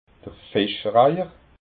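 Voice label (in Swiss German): "fischreiher", Alsatian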